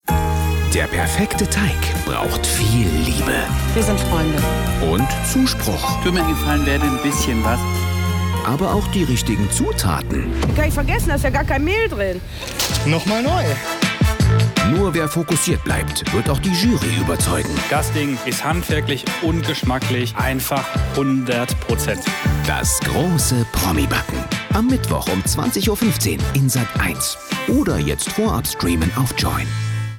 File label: Station Voice; Sat.1; Das grosse Promibacken